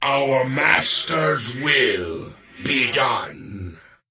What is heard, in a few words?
Death Knight Male Greeting